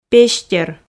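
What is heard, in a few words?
"пестер", Komi, female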